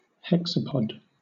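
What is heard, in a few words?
"hexapod", British English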